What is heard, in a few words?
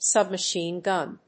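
"submachine gun", English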